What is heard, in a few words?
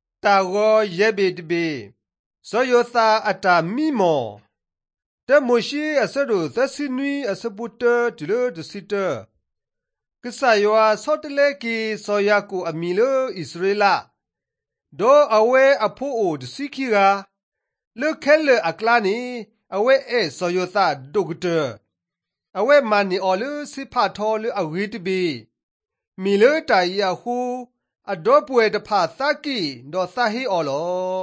drama, karen sgaw; mighty men of god; bible